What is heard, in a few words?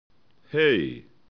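"hei", Finnish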